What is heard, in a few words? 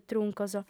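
"truncos", female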